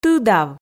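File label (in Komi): "тыдав", Komi, female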